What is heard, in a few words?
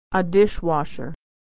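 "washer", American English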